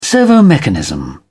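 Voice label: "servomechanism", British English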